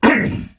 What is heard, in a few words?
Pain Heavy; Warframe; Dax Heavy; Battle Barks